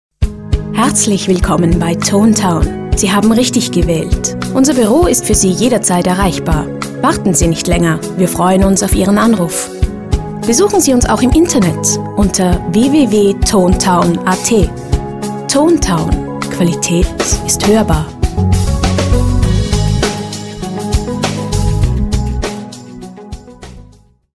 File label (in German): Telefon